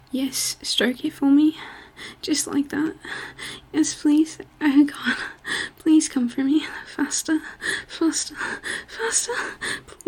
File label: English Accent